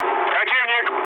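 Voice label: Russian enemy detected mood low, speech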